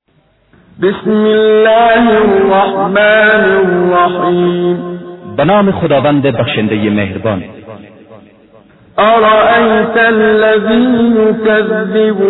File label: Surah Farsi; Menshay Translation